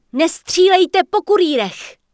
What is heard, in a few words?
Night Elf Female No; wowpreklad; czwow; NightElfVocalFemale